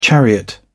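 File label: "chariot", British English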